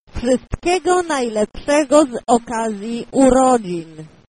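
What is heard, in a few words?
happy birthday polish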